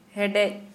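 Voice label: Santali female boiled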